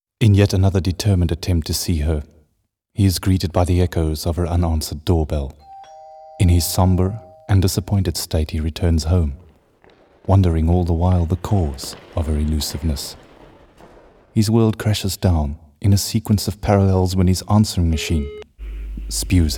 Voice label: narration